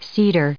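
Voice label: "Cedar", US English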